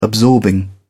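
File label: "absorbing", British English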